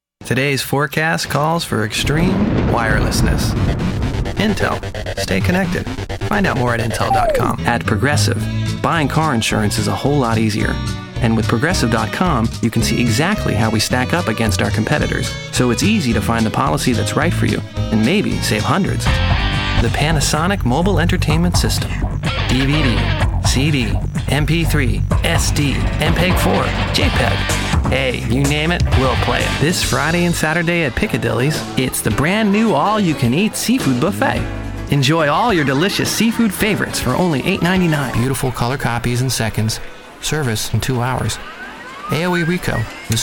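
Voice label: Commercial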